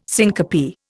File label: "syncope", Indian